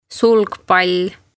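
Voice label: "Sulgpall" Estonian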